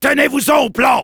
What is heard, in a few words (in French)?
Soldier jeers, fr